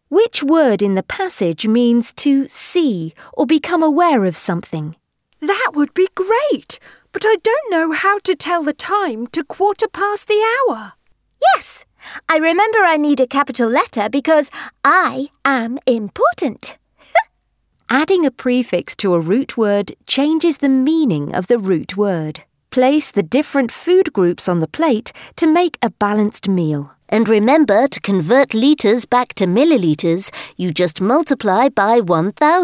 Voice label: english british e-learning